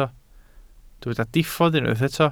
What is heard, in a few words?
"diffodd", Welsh